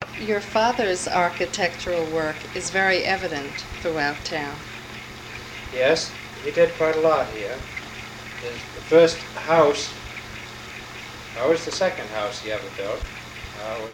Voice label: Oral history with 64 year old white male Concord Massachusetts; american english dialect